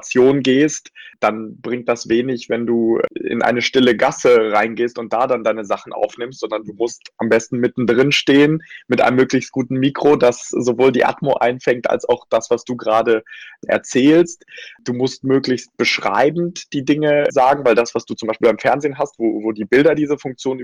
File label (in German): Podcasting Reportage; Klickwinkel